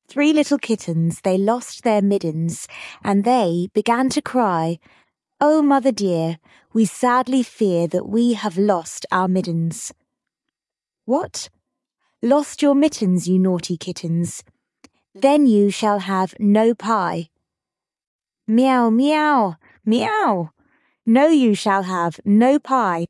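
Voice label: Three Little Kittens female british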